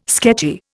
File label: "sketchy", Indian